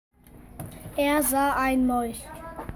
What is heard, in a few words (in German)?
Sprachmemo